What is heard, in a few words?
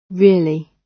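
"really", British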